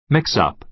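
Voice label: "mix-up", American English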